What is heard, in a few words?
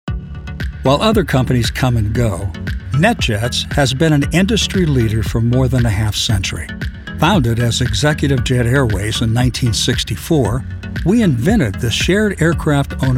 CORPORATE MIX